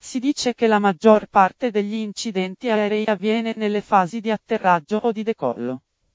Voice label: male slightly robotic maybe italian; Robotic or Stuttery or Spooky

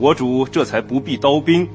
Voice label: chinese male vox; SPOKEN WORD; kongming lu su

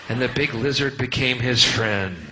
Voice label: "and big lizard became his friend", male; MALE MISC

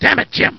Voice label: Dammit Jim, Cheesy Lo-Fi Sound Effects

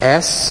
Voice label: "s", male British; Alphabet

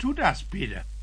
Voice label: tu das peter, male spoken word; MALE MISC

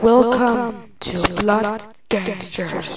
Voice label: kid welcome to blood gangsters

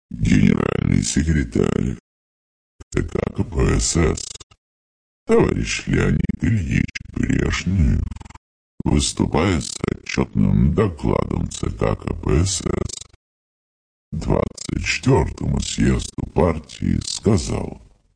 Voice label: russian propaganda vocode, male; aeroflot

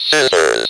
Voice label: speak and spell, "scissors"; WORDS

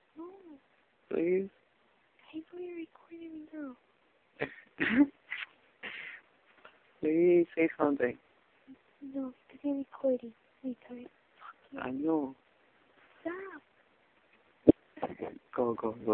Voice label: unintelligible phone conversation; Voicemail Messages

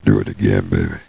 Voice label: Do It Again Baby, Cheesy Lo-Fi Sound Effects

